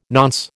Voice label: "nonce", American